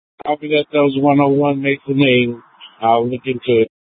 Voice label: Valley Metro Public Transit System Light Rail Ops, scanner; Regional Wireless Cooperative (RWC); South Mountain High